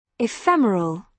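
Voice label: "ephemeral", British English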